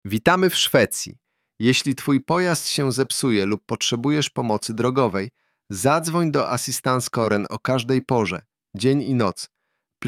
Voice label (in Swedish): Ak polsk rost